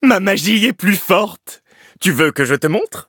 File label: levelup, fr; cookie run